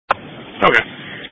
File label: EMS Dispatch